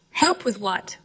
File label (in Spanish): Voice Acting es